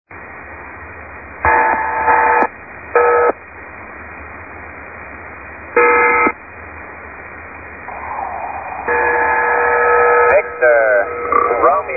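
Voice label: Exercise, HF Radio; Global Thunder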